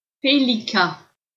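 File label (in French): "pélican", French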